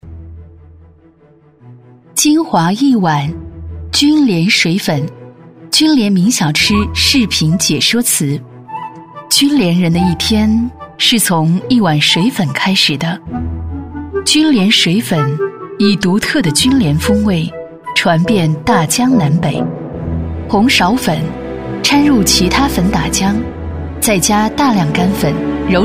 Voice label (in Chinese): 女 纪录片 舌尖风格; 《筠连米小吃》; 纪实记录